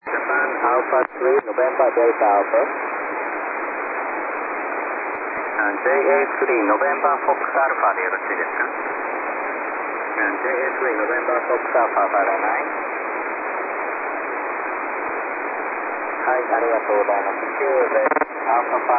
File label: 18.144 MHz ssb